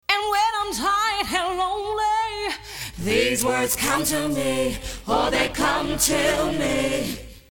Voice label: voice multiplier